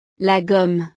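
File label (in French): "la gomme", French; utiles escolares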